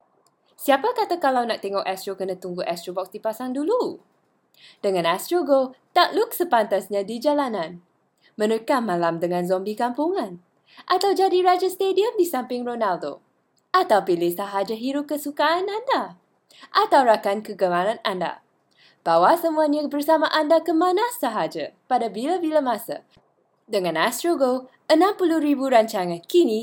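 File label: Digital Malay VO Guide; Astro GO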